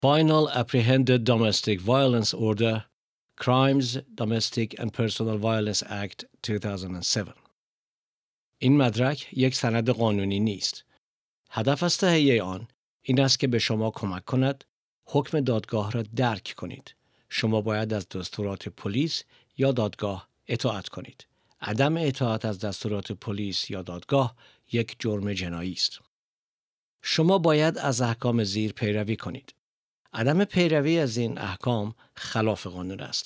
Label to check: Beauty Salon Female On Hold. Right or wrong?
Wrong